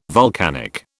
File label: "vulcanic", British